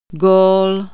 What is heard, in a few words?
"goal", czech